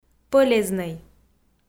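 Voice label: "пӧлезнӧй", Komi, female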